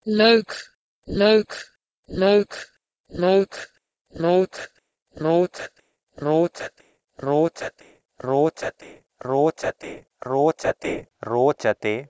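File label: Proto-Indo-European leuk to Sanskrit rocate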